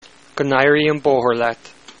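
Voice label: bon voyage, Irish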